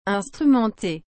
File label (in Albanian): "instrumenter", frengjisht